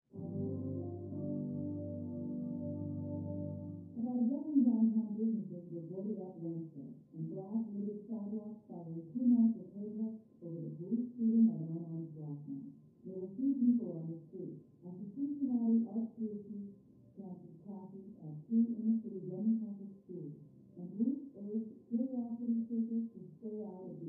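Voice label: TV Female News Reporter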